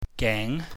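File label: "geng", Cantonese, mid rising tone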